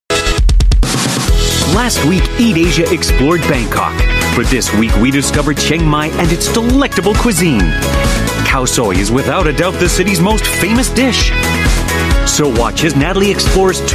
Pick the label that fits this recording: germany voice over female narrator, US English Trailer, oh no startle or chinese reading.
US English Trailer